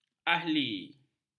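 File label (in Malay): "ahli", Malay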